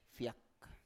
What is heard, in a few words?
"fiak", Biak